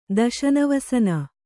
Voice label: "ದಶನವಸನ", Kannada; daśana vasana; noun; = ದಶನಚ್ಛದ.